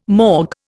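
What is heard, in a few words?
"morgue", Indian English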